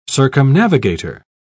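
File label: "circumnavigator", British English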